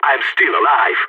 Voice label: last man standing, balkan; vo